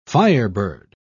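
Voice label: "firebird", British English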